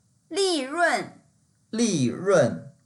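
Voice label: "li4run4", Mandarin Chinese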